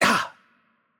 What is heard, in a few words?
VO Hurt Light, cs CZ; bloom minigame